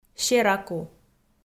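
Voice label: "сераку", Komi, female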